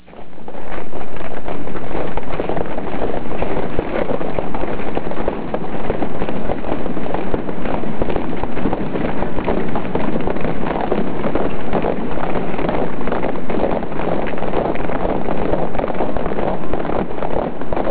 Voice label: men